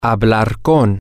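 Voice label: to Speak Talk With, Spanish, male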